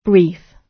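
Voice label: "brief", British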